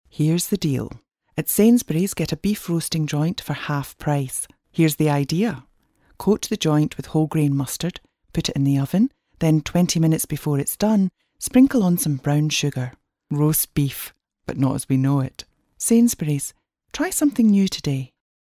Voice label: female scottish voice over sales